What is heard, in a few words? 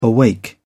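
"awake", British English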